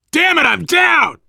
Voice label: incapacitated initial, voice, biker; Left 4 Dead 2; left4dead2 dlc3; survivor

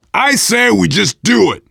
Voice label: generic responses, voice, biker; Left 4 Dead 2; left4dead2 dlc3; survivor

